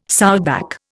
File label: "sowback", Indian